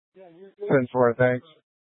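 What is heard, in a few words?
Tempe police department, scanner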